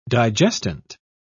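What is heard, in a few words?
"digestant", en, British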